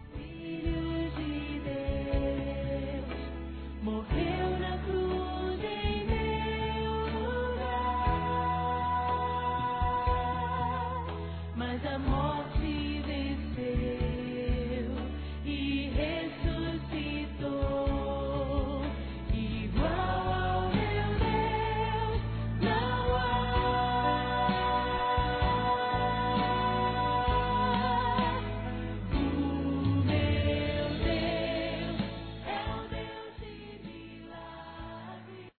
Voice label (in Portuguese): NATAL E ANO NOVO PARA SOGRA, fem; Telemensagens; Misto